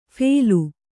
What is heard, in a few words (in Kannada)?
"ಫೇಲು", Kannada